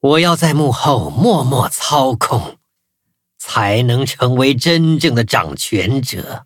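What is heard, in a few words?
upgrade, Traditional Chinese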